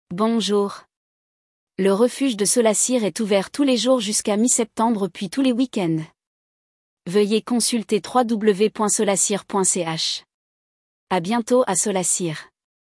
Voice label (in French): Speech Bonjour le Refuge